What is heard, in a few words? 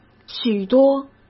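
"许多", Mandarin Chinese; chinese-flashcards; hsk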